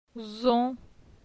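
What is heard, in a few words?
"Zon", French; phonetique; la syllabe on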